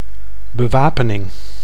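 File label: "bewapening", Dutch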